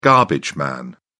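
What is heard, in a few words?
"garbage man", English, British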